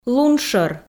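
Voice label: "лун шӧр", Komi, female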